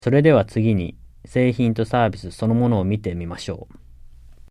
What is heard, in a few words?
JAPANESE; delltranslations